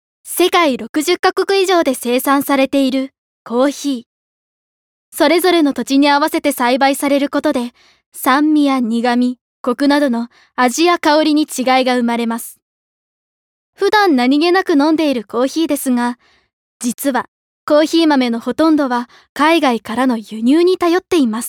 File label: Narration Straight